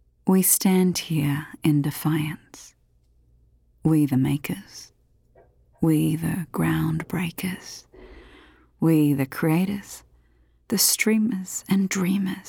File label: AUSTRALIAN COMMERCIAL